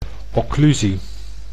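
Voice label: "occlusie", Dutch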